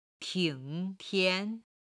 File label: "ting tian", chinese